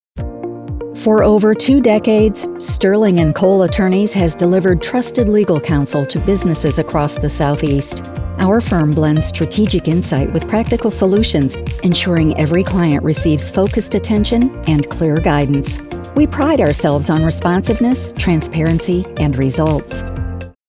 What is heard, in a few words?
Legal Narr LEGAL CORP